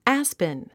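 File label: "aspen", English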